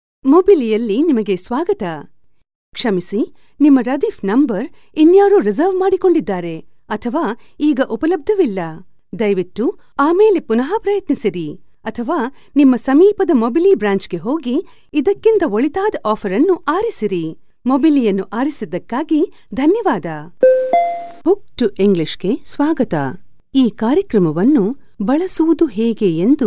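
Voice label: Kannada General; GoLocalise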